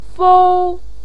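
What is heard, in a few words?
"fou", Mandarin Chinese, first tone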